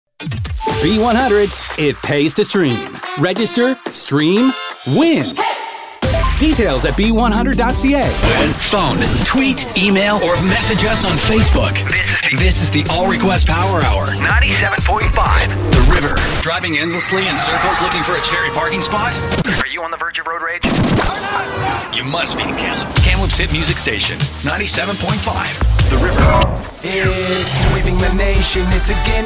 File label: Radio Imaging